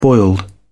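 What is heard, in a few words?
"boiled", British English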